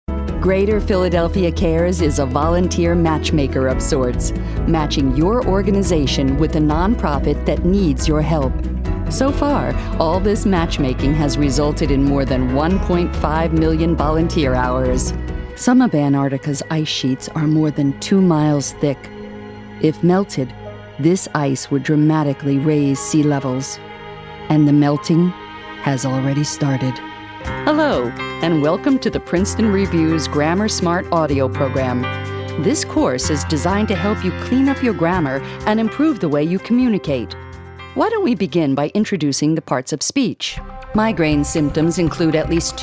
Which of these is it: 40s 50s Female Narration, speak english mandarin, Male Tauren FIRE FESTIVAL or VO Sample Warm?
40s 50s Female Narration